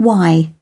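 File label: "x ray", British English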